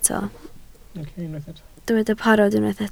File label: "parod", Welsh